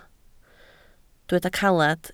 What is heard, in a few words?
"caled", Welsh